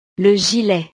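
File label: "le gilet", French; ropa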